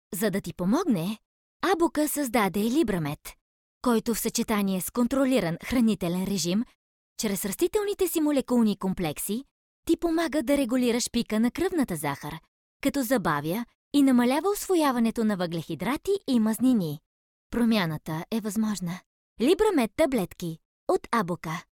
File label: bulgarian f commercial, voice; voice demos